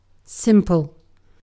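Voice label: "simple", British English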